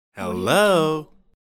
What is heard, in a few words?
male voice "hello"